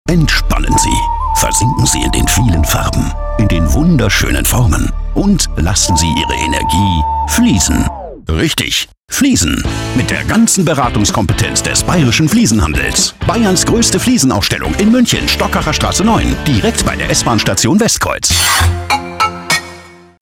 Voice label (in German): Werbespot Fliesenhandel